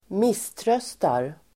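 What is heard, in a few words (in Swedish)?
"misströstar", Swedish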